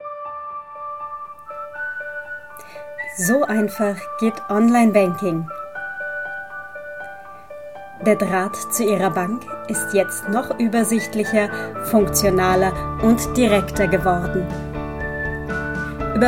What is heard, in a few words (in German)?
erklaerfilm onlinebanking